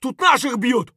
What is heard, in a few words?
friendly down; Escape from Tarkov; scav5